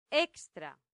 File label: "extra", latin; santillana